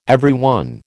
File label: "everyone", American